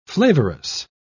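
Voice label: "flavorous", British English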